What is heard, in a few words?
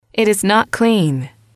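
"it is not clean", English, female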